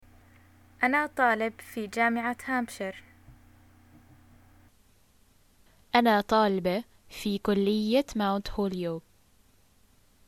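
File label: Jordanian get acquainted I am student, arabic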